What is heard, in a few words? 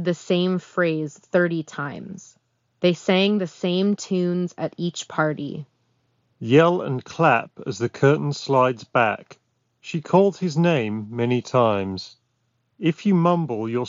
Ideal microphone sample; Shure Aonic50 Gen2